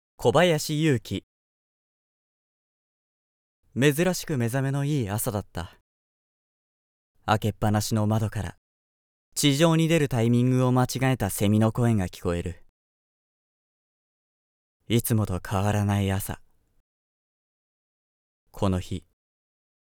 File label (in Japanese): ボイスサンプル ナレーション モノローグ; 【宅録】